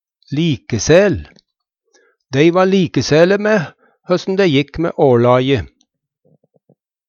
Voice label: likesæl, Numedalsmål

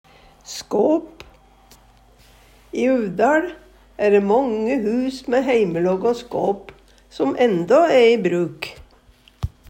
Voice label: "skåp", Numedalsmål; Substantiv inkjekjønn; Hushald, mat, drikke; I UvdaL æ dæ månge hus mæ heimelågå skåp som endå æ i bruk.

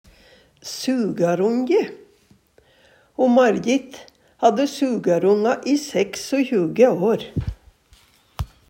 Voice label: sugaronje, Numedalsmål; Substantiv hankjønn

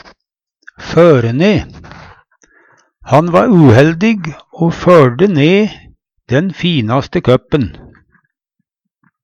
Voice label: "føre ne", Numedalsmål; Han va uheldig o førde ne den finaste køppen.; å falle ned (vanvare)

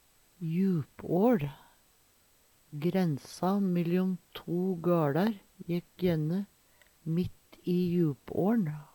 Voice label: "jupåL", Numedalsmål

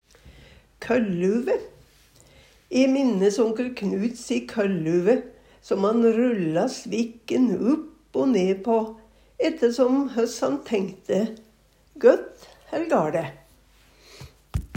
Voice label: koll luve, Numedalsmål

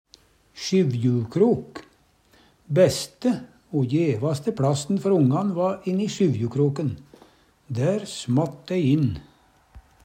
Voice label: "sjyvjukrok", Numedalsmål

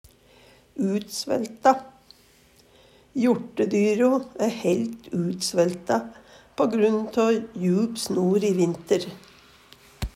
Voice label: utsvelta, Numedalsmål

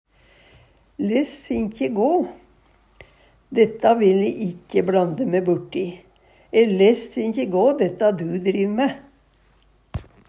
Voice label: less inkje ga, Numedalsmål

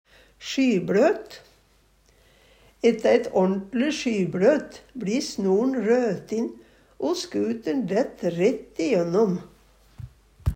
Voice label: "sjybLøt", Numedalsmål; Ette eit årn'tle sjybLøt bLi snor'n "røtin" o skuter'n dætt rætt i jønnom.

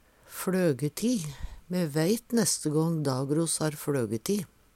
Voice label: flogeti, Numedalsmål; Substantiv hokjønn; Me veit neste gång Dagros har fLøgeti.

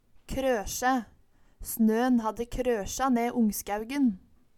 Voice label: krosje, Numedalsmål

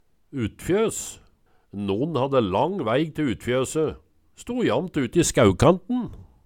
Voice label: utfjøs, Numedalsmål

